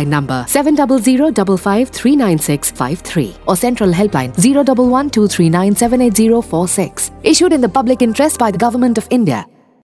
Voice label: Cough Radio English Nagaland, Radio spot on key behaviours COVID-19; SARS-CoV-2; UNICEF IEC eWarehouse